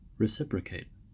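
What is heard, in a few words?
"reciprocate", American; pronunciations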